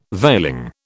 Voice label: "veiling", British